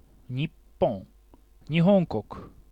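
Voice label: nippon nihonkoku, Japanese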